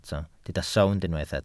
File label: "sownd", Welsh